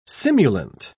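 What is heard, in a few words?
"simulant", British English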